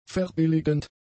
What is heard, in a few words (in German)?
"verbilligend", German; adjectives